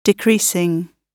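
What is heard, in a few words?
"decreasing", British English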